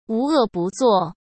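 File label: "无恶不作", Mandarin Chinese; hsk; chinese-flashcards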